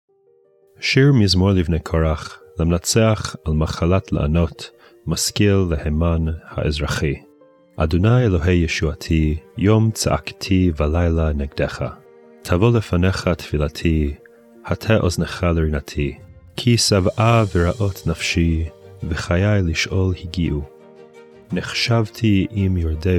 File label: poetry and prayer psalm hebrew; Meir Soloveichik